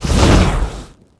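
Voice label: Goblin Mage attack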